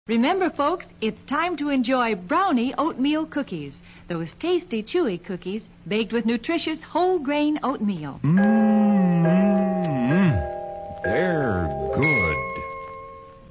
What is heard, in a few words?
Commercial Brownie Cookies; KHQ Spokane